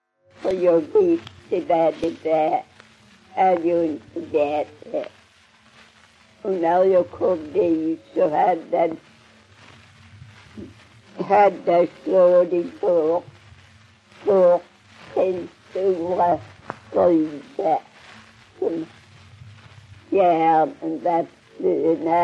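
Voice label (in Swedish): Strövelstorp Skåne, dialekter; Folkminnen; Svenska sagor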